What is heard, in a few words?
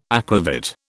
"aquavit", British